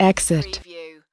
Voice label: US female, "exit"; lynne publishing

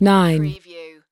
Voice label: US female 9; lynne publishing

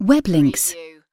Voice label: UK female web links; lynne publishing

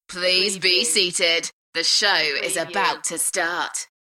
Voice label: UK female; beanstalk-audio

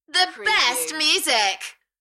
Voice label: UK female, The best music, Enthusiastic; beanstalk audio

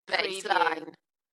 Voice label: UK female "Bassline" Dalekness; beanstalk audio

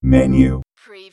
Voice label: Robot voice - menu; lynne publishing

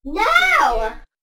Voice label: Child says No; beanstalk audio

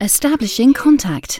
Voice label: UK female establishing contact; lynne publishing

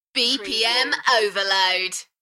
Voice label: UK female, Bpm overload, Enthusiastic; beanstalk audio

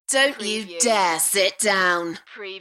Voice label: UK female

